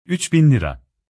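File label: 3 thousand liras, Turkish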